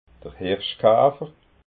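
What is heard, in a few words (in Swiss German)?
"hirschkafer", Alsatian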